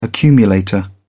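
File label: "accumulator", British English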